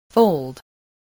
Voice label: "fold", English voice, spelling